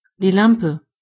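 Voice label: lamp, German voices words; gcompris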